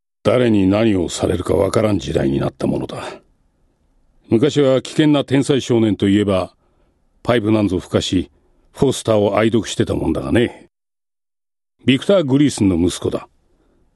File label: male voice